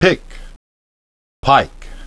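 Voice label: "pick" "pike", English pronunciation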